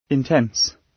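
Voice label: "intense", British English; Life, leisure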